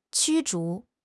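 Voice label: "驱逐", Mandarin Chinese; HSK6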